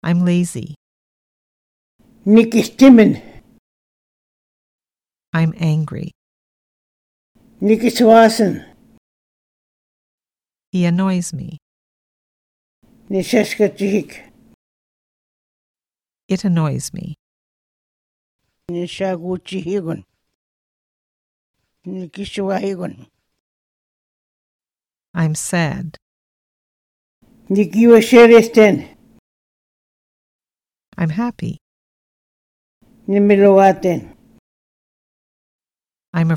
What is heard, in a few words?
Moose Cree feelings; Linguistic Atlas